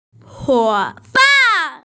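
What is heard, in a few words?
"huo ban", Mandarin Chinese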